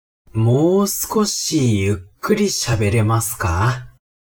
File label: man